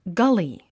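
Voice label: "gully", English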